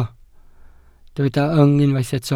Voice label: "yng", Welsh

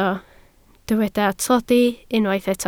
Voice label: "tlodi", Welsh

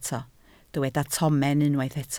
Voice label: "tomen", Welsh

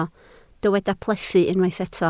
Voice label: "plethu", Welsh

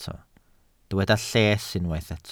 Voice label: "lles", Welsh

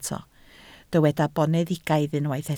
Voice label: "boneddigaidd", Welsh

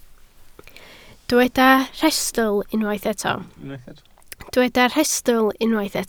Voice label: "rhestl", Welsh

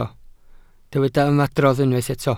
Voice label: "ymadrodd", Welsh

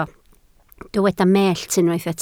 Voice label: "mellt", Welsh

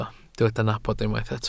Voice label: "nabod", Welsh

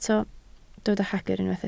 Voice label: "hagr", Welsh

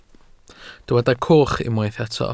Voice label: "coch", Welsh

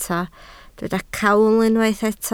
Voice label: "cawl", Welsh

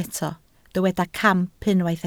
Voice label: "camp", Welsh

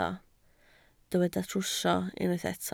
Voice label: "trwsio", Welsh

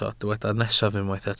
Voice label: "nesaf", Welsh

